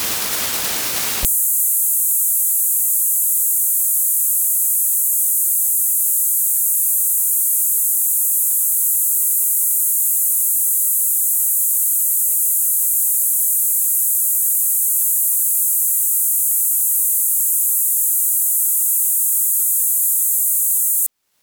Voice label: codec 2 test audio